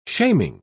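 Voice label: "shaming", British English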